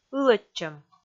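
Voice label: "ылӧдчӧм", Komi, female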